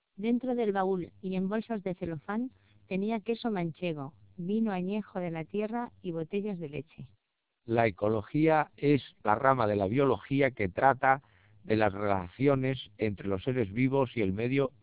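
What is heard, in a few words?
twelp2400 Spanish short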